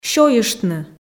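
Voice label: "сёйыштны" Komi, female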